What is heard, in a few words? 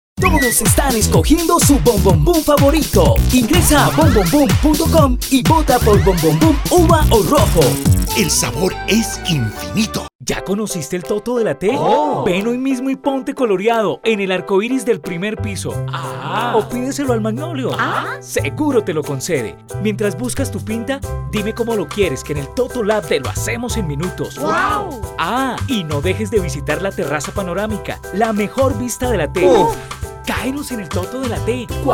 radio spots young